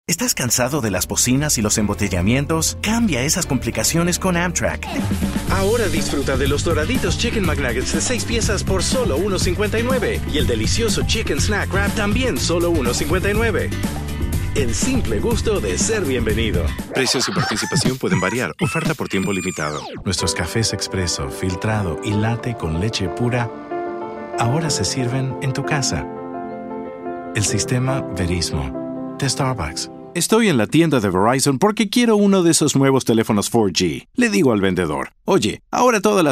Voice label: languages and accents male spanish

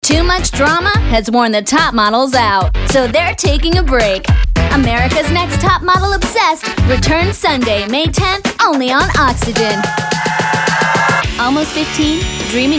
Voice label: promo; women

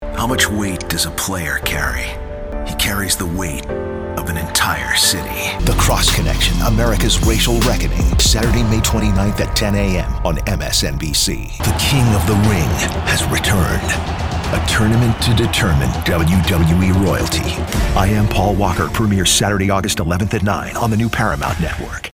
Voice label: promo dark men

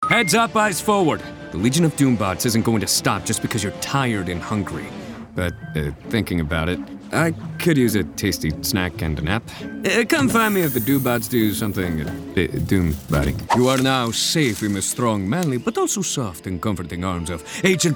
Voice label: animation men

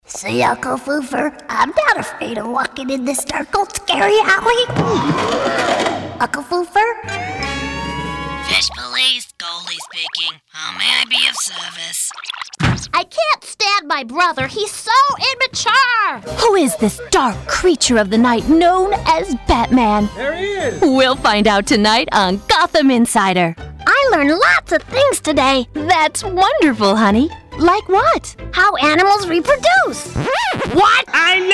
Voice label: animation

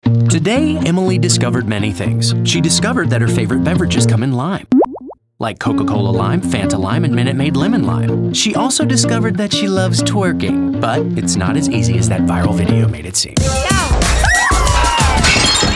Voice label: commercial, men

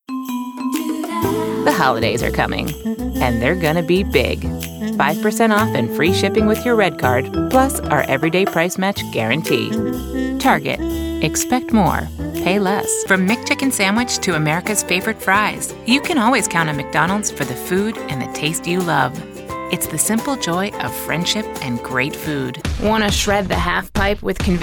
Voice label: commercial, women

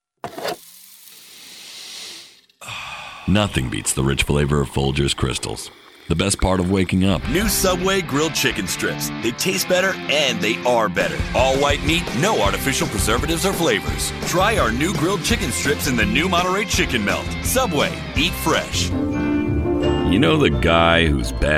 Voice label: commercial, men

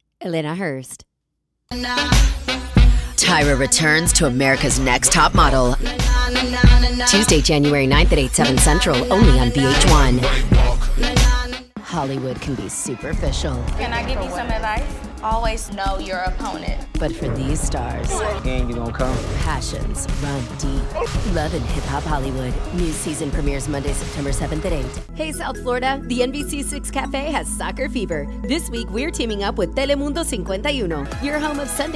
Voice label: promo female

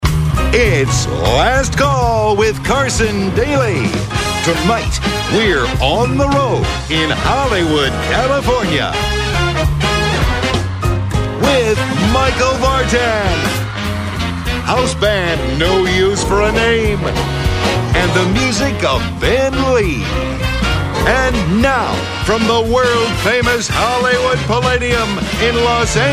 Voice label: show and stadium announcers

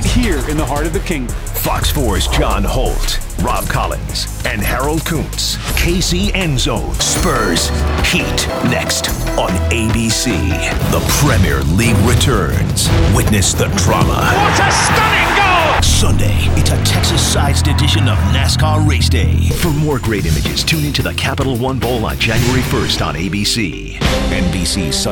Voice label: tv affiliate sports men

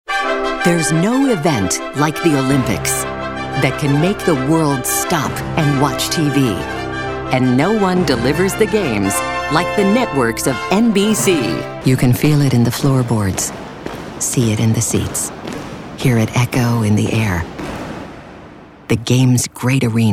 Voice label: promo sports, women

